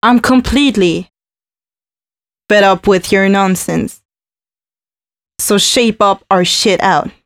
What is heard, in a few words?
female c#132bpm, spoken hooks; Mutekki Media; Dance Ultra Voice VOL-1